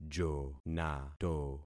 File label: "jonato", concat syllables; Affixing experiment